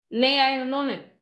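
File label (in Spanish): Voice Acting es F, Spanish, female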